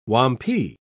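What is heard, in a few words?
"wampee", English, British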